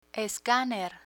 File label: "escaner", Spanish